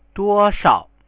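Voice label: "duoshao", Chinese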